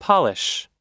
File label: "polish", US